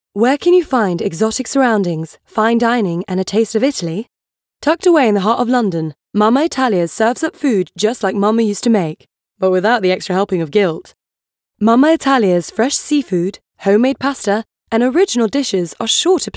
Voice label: Ad; Mamma Italia